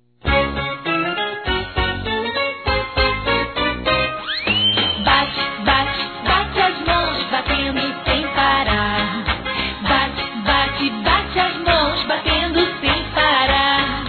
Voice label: Aniversário Sobrinha Feminino; Aniversário Infantil; Telemensagens

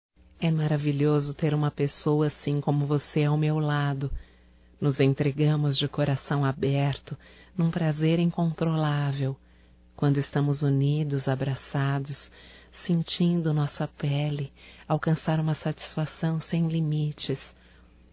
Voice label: ROMÂNTICA (F); Românticas

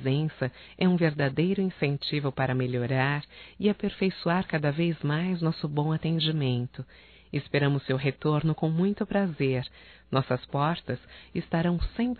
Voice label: CLIENTE FUNC PúBLICA, Telemensagens, fem; Profissionais; Telemensagens 2017

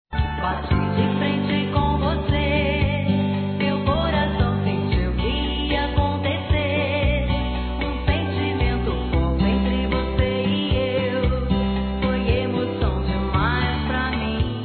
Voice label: Trilha OTIMISMO, Gospel, fem; Telemensagens; Telemensagens 2017

